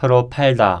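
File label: aspirated sound example, korean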